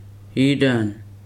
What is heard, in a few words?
"see", Weri